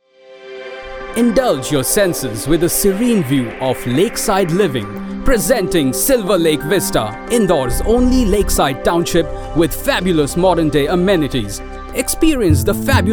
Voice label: English Commercial

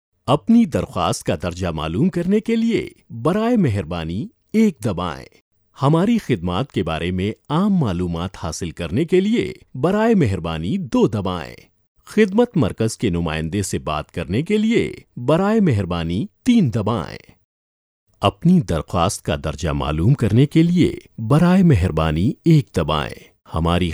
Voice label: IVR Urdu

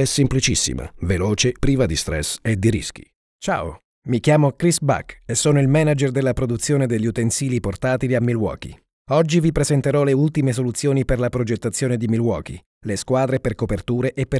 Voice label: Video Explain, E-learning

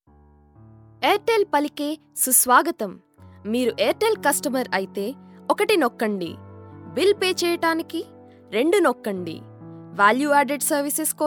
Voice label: IVR telugu

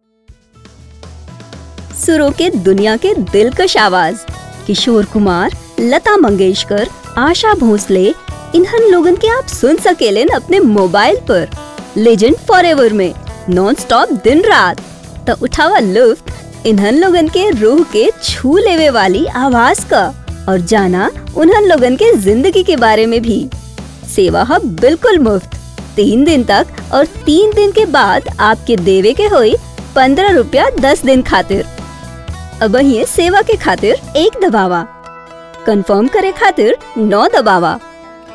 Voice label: ivr Bhojpuri

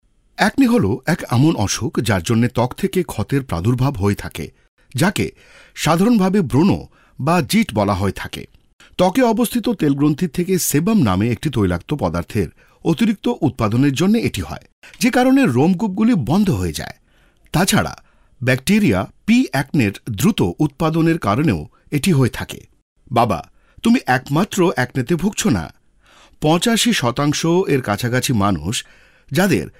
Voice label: E-learning

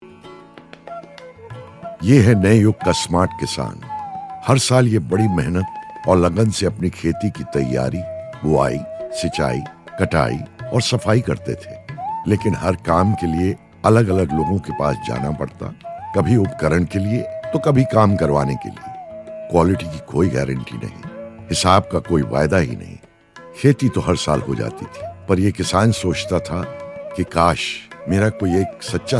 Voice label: Hindi; Smart Kissan

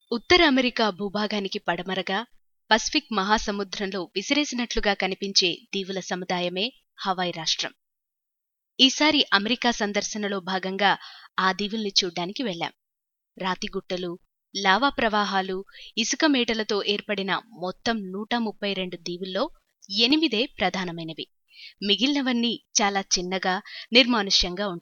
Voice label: elearn telugu

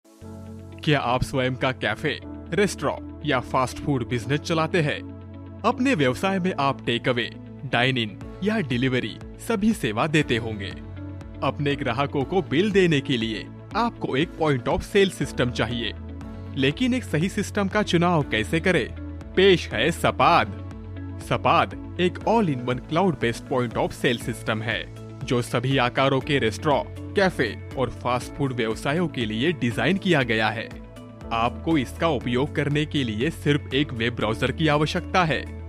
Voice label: EXPLAINER HINDI

